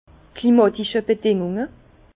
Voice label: "klimatische", Bas Rhin; vin; conditions climatiques

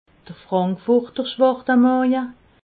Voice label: "frankfurter", alsace

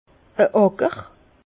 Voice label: "acker", Bas Rhin; vin

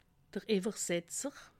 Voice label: "iwwersetzer", alsace

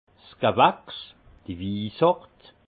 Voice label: "gewachs", alsace

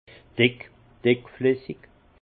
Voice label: "dick", alsace; vin; épais

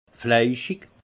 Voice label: "fleischig", alsace; vin; charnu

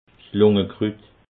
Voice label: "lungekrut", alsace

